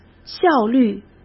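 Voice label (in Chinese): "效率", Mandarin Chinese; chinese-flashcards; hsk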